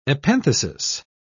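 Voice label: "epenthesis", English, British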